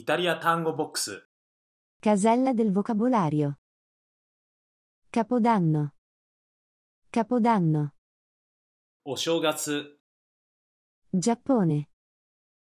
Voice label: Italian Vocab